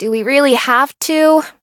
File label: battle, en; Cookie Run